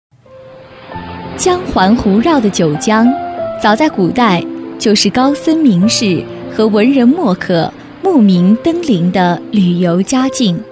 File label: Mandarin female